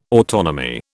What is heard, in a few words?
"autonomy", British